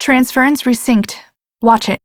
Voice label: Revive Operator Voice en; Warframe; Operator Mission